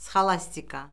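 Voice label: "sxolastika", Azerbaijani; Orfoqrafiya Lüğəti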